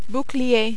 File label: "bouclier", French